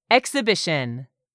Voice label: "EXHIBITION", english; 3000 words